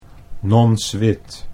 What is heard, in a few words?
"Nons Wit", Dutch